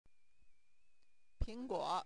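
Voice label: "pingguo", Mandarin Chinese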